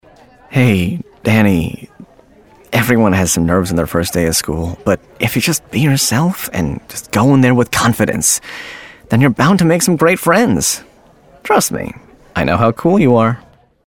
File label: Sitcom Father